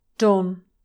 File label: "Don", English